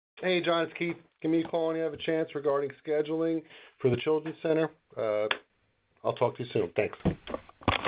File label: Voice Message